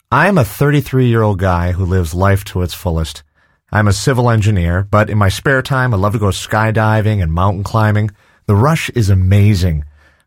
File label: VO M